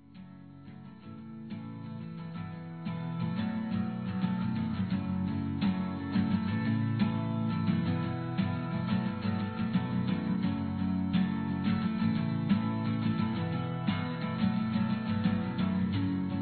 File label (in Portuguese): Neutro Masculino; Aniversários; Aniversários diversos